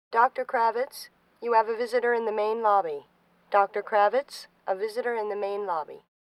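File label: hospital p.a. announcement; Asian Show Sound Effects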